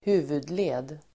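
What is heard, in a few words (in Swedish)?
"huvudled", Swedish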